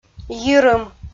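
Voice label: "йирым", Komi, female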